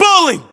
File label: name bowling, localized english battlechatter us